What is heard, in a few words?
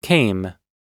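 "came", American English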